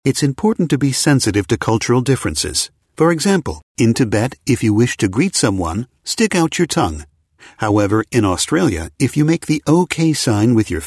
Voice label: eLearning